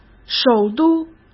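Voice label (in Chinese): "首都", Mandarin Chinese; hsk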